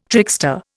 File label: "trickster", Indian